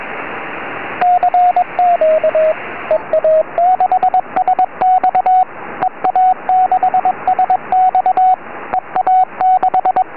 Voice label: qso 4m

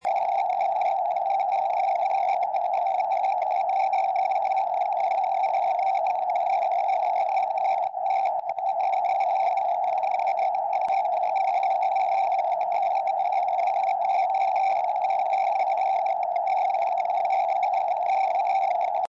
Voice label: 2m, tropo

